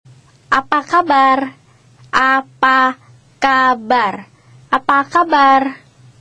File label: Hello in Indonesia; Multiculturalism